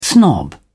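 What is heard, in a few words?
"snob", British English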